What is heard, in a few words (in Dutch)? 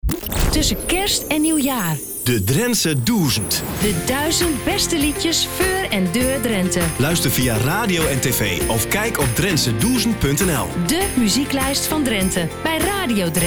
PROMO ACTIE